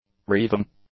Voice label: "reeving", English; adjectives

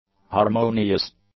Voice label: "harmonious", English

